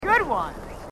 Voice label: marines female